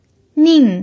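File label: "nin" Mandarin Chinese, fourth tone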